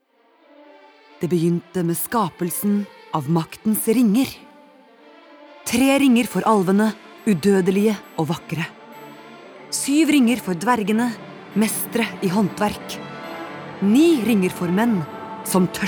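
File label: Norwegian movie trailer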